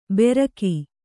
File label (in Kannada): "ಬೆರಕಿ", Kannada